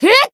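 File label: attack, Japanese; anaberu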